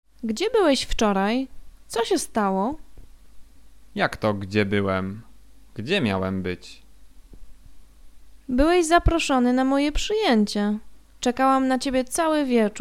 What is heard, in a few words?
conversation; lektorek; firstyear